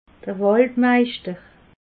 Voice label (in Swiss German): "waldmeischter", alsace